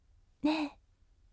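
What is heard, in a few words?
"nee", gentle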